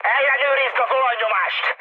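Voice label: Hungarian damaged oil mood high, speech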